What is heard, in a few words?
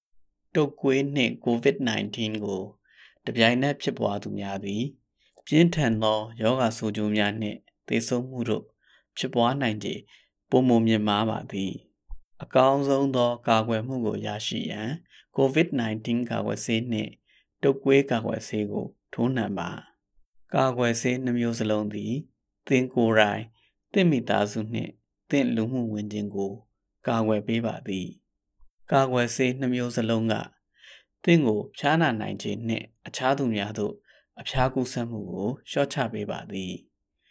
Flu PSA BURMESE